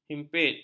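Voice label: "himpit", Malay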